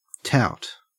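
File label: "tout", Australian English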